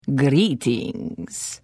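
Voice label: Hello, Khajiit female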